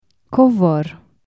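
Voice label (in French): "tapis", Romanian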